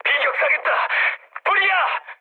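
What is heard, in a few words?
Korean on fire mood med; War Thunder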